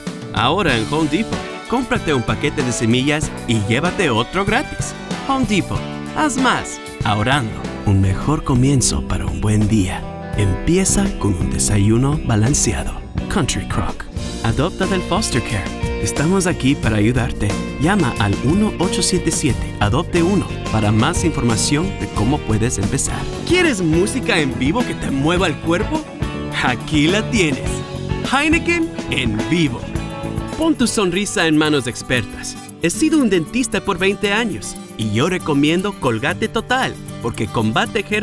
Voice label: Spanish commercial